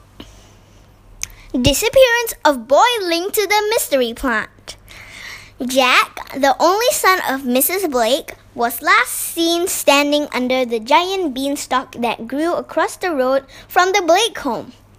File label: News